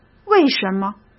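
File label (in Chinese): "为什么", Mandarin Chinese; hsk; chinese-flashcards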